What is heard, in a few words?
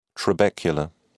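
"trabecula", British English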